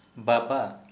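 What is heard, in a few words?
father, Santali, male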